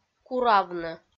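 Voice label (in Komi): "куравны", Komi-Zyrian, female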